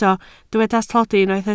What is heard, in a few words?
"tlodi", Welsh